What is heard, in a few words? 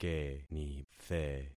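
"kenithe", concatenated syllables; Affixing experiment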